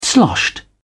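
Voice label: "sloshed", British English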